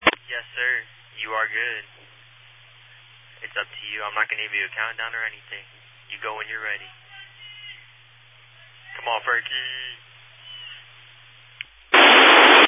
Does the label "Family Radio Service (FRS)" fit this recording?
Yes